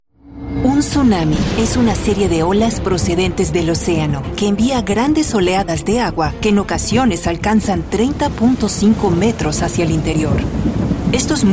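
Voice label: female, Spanish Latin America, narration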